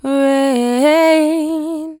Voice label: "Rain", Vocals, One-Words; GhostHack